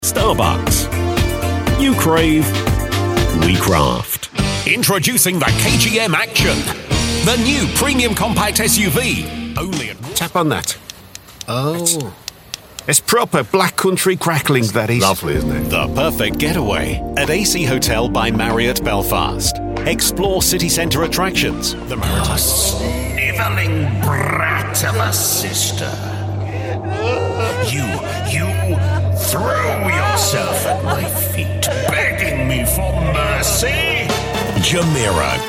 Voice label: commercials reel

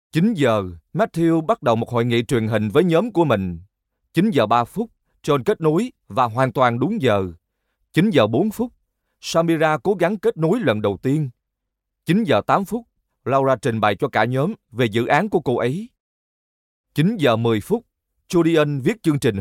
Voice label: Vietnamese voice system announcements

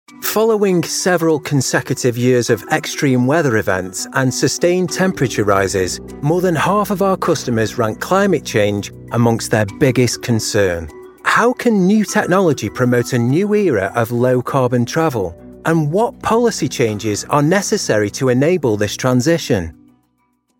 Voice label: web explainer, voiceover

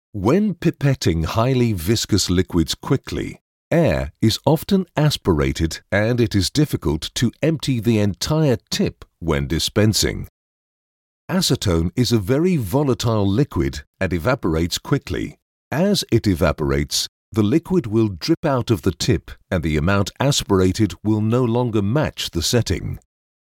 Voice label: e-learning

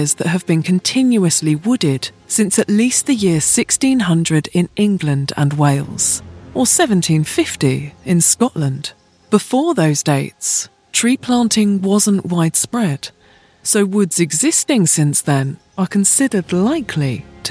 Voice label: narrative ancient forests

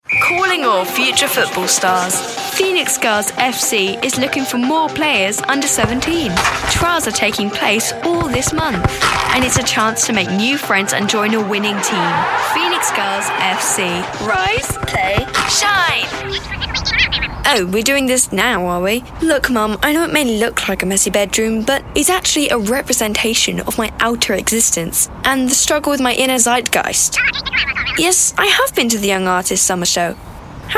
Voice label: daughter 12

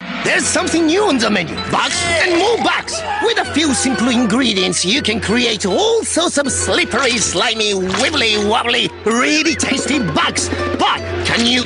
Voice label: japanese japanese promo

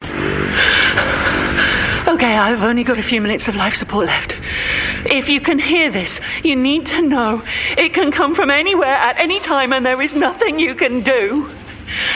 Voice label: gaming, Strong Approachable Voice

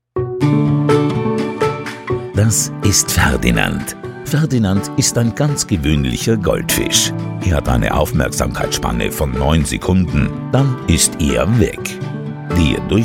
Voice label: german voice explainer

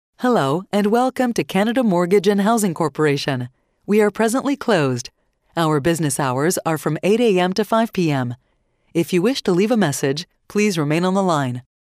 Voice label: french canadian female canadian ivr

